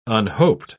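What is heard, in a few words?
"unhoped", British English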